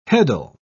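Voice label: "heddle", British English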